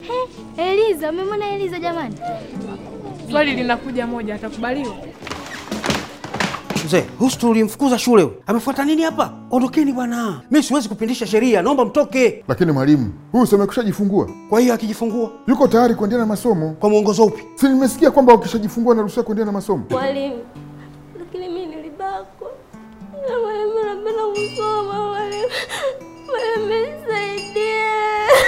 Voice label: Radio Spot; Re-Entry